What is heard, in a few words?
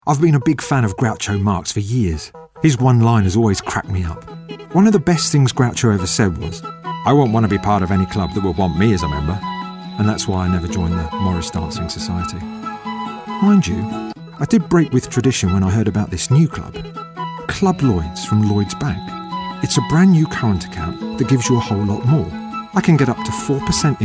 natural